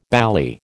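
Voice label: "bally", American English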